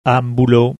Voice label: "ambulo", Latin